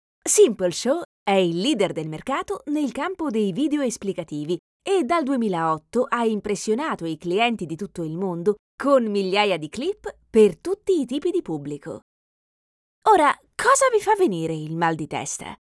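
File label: Italian, female, corporate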